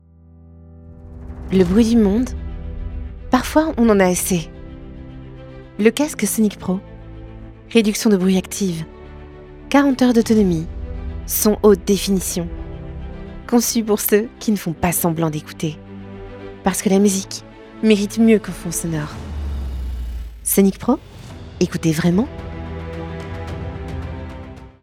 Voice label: Commercial High-tech FR